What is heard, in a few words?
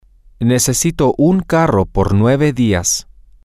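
I need a car for 9 days, Spanish, male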